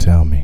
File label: TELL ME, Male Vox; Hip Hop Nation